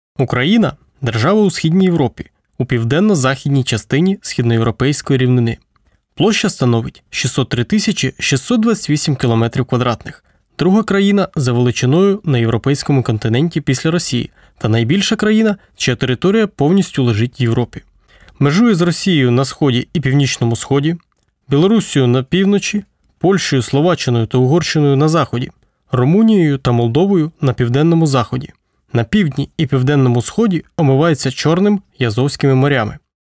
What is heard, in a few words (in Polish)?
Ukrainski